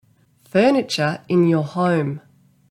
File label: Furniture in your home, en au; department store